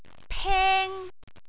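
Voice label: "peng1", Cantonese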